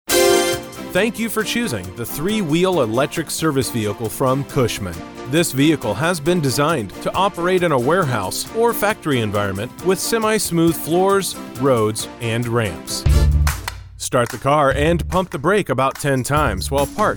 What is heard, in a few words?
explainer